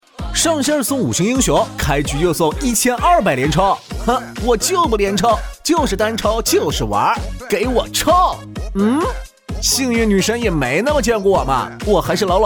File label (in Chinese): 信息流 普通话; 连抽